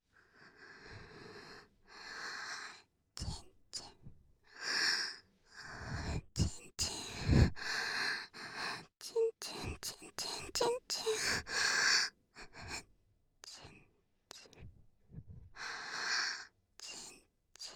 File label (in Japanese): 囁き淫語連呼; SEあり